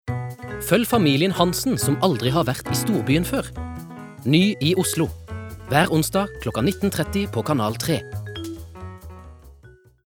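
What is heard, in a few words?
Norwegian promo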